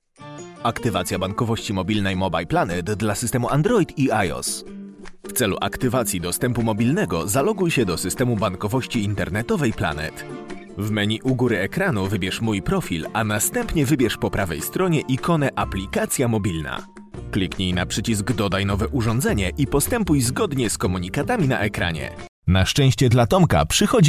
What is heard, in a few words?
polish voiceover Infomercials educational